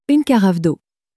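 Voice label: "une carafe d'eau", French, female; flashcards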